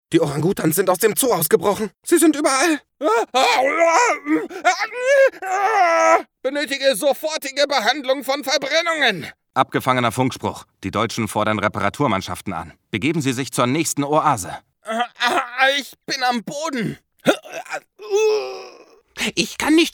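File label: video games, German Voice Artist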